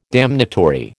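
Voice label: "damnatory", American English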